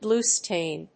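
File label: "bluestain", English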